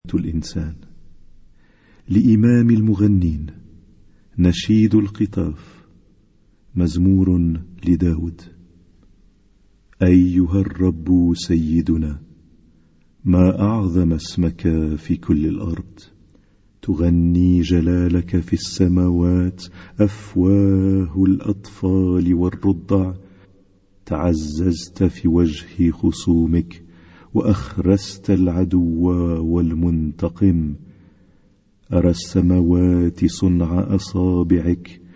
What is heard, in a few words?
Arabic Psalm Zaboor